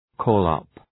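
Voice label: "call up", English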